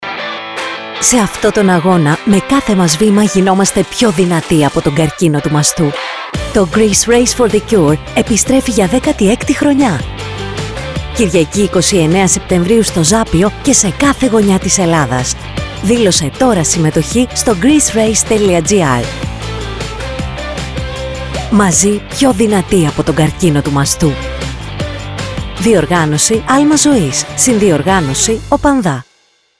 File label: RADIO SPOT; GRFC®2024